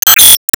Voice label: Emo Confirm